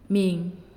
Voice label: "Name", Naasioi

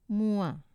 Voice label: "mua", man, Wamesa

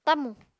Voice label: "tamù", Apatani

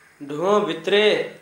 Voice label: inside of house, Gta, Dialect: Hill; ɖǝhõ bitre; inside the house

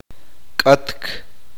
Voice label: uspanteko; quemar

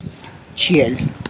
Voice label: "spouse", tlacochahuaya

